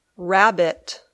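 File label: "rabbit", English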